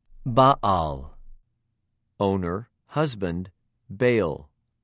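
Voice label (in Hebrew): "בַּ֫עַל", Hebrew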